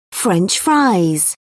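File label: "french-fry", British English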